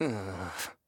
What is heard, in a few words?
Zentaro Emote Negative